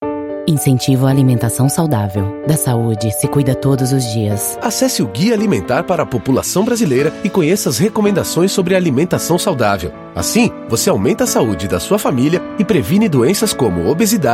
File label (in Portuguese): spot; alimentacao saudavel